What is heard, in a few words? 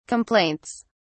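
"complaints", British English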